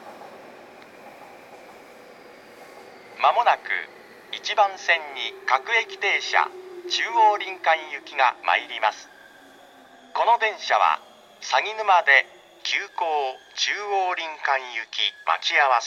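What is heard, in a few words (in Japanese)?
接近放送, 三軒茶屋駅; 各駅停車 中央林間; 鷺沼で急行中央林間行待ち合わせ、長津田で急行中央林間行の待ち合わせを致します。; tokyu-corporation; sangenjaya; local chuo-rinkan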